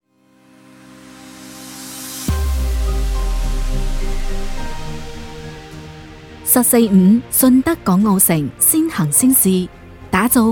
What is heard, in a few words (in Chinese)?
粤语城市宣传片